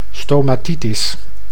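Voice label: "stomatitis", Dutch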